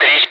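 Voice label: Speech, Russian, air traffic control; Digits; DCS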